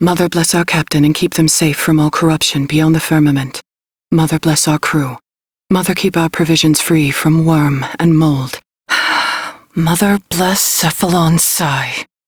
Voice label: Crew Idle, Female; Dialog; en; Warframe; Railjack Crew; New Loka